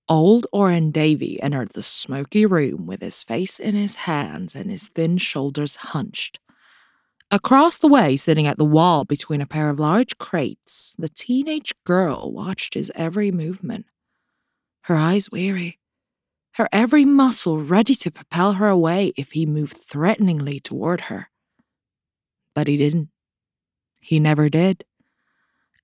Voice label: female USA accent narration; voices